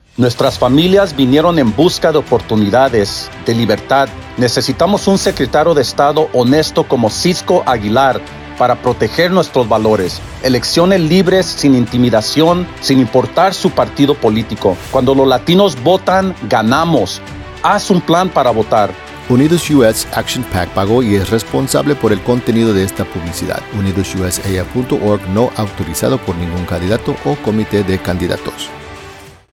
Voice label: Radio